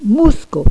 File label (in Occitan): "mosca", gasconha